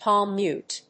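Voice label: "palm mute", English; ミュート (ギター); Weblio英和辞書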